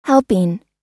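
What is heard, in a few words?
"helping", US English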